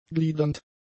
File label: "gliedernd", German; adjectives